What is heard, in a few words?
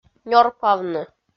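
"нёрпавны", Komi, female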